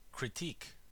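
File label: "critique", American English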